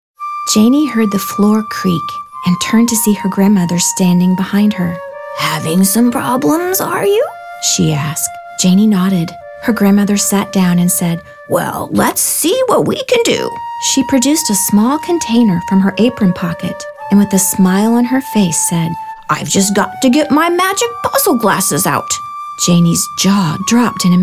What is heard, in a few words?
Narrative